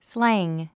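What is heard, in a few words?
"slang", English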